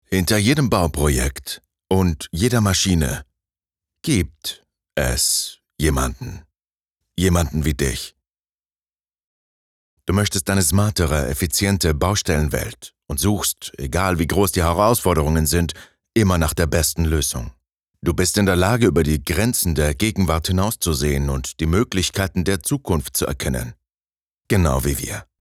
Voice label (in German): Imagefilm VO